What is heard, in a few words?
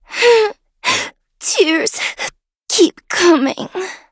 battle en